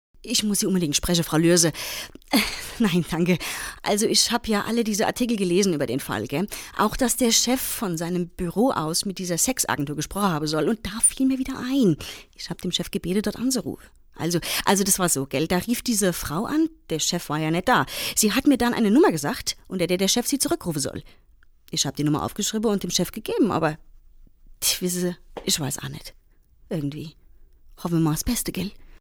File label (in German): synchron dialekt